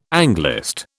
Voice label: "Anglist", British